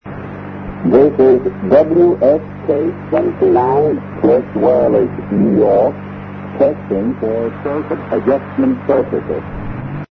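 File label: upper sideband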